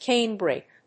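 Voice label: "canebrake", English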